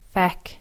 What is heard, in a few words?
"Feck", english, Ireland